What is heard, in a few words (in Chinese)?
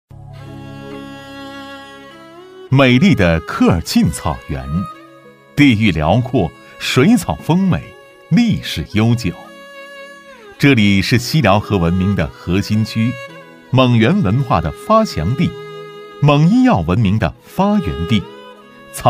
男 企业宣传片; 蒙药股份